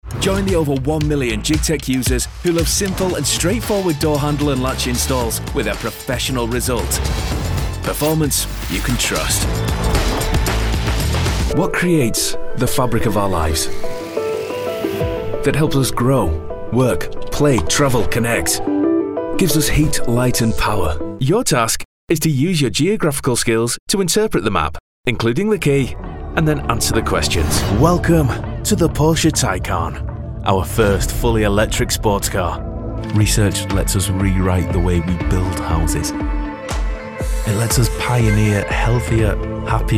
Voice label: Corporate; Showreel

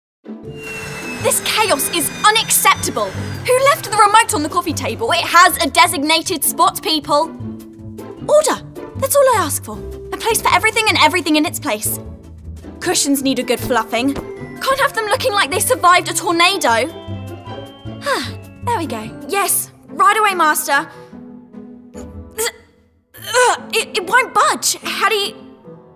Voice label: Animation

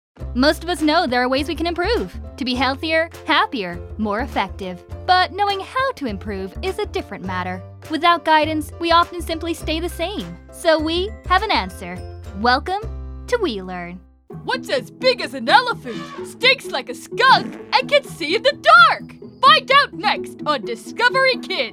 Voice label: American Commercial

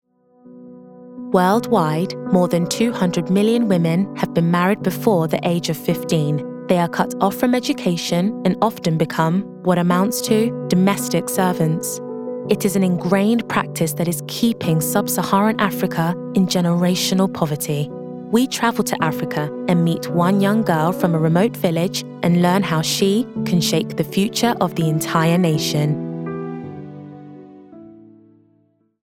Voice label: Documentary English

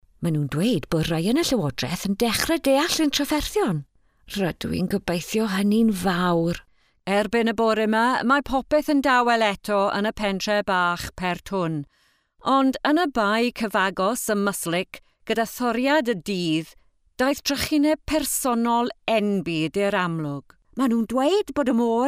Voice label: Welsh language Characters

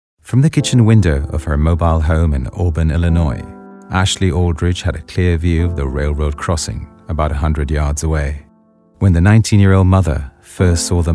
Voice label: Narrative Eng

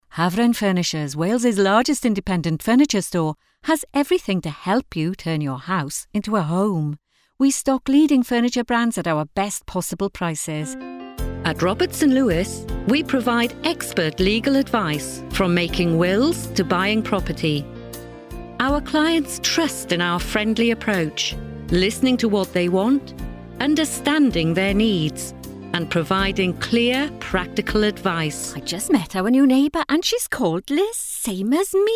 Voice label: Welsh accent Commercial

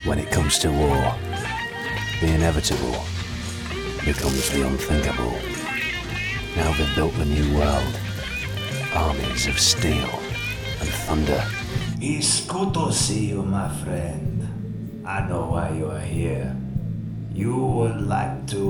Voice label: Character

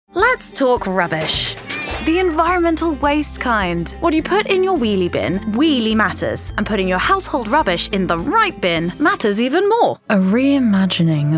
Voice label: Commercial

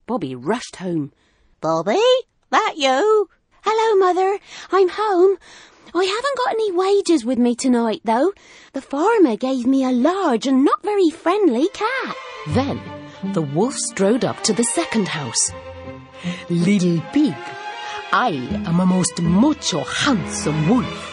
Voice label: Storytelling

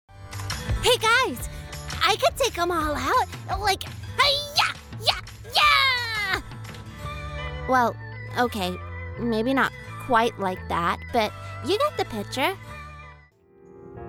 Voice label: Animation

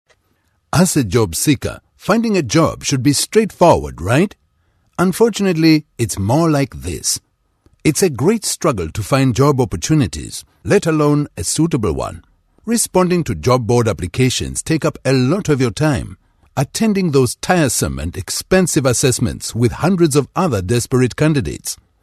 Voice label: African Accent

